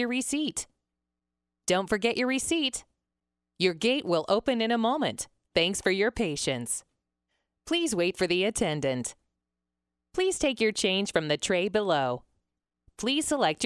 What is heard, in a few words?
Metro Express Voice Prompts